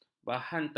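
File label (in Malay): "bahantara", Malay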